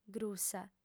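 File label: "grussa", female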